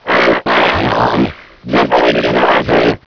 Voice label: gibberish